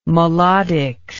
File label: "melodics", English